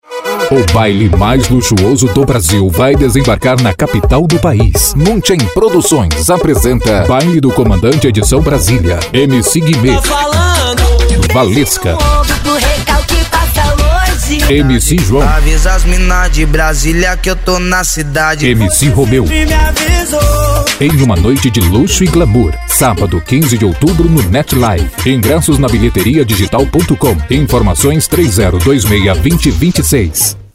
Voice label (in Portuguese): voz locutor, vinhetas e chamadas para festas; gb net live